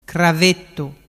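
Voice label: "cravettu", Vernazzese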